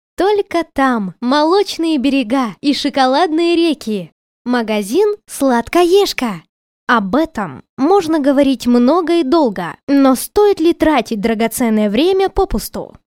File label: russian